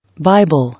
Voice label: "Bible", English